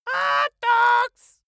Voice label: dwarf say hot dogs